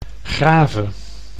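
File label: "Grave", Dutch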